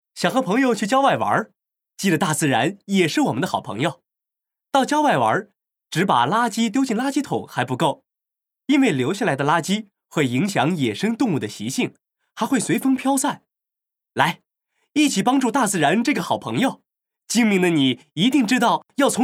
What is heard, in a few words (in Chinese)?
普通話男聲 環保